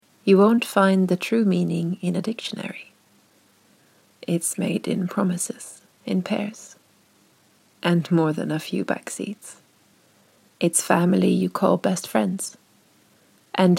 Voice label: female English USA and Swedish English with Swedish accent commercial